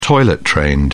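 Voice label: "toilet-trained", British English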